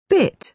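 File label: "bit", British English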